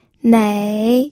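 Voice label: "nei", third tone, pinyin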